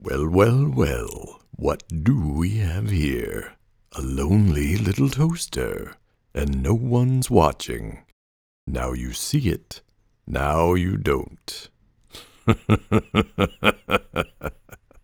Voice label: Cartoon Villain